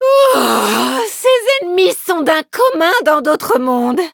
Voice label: victory, fr; cookierun